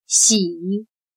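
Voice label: "喜" Chinese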